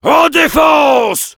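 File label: help me defend, French; "En défense!"; Heavy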